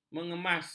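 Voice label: "mengemas", Malay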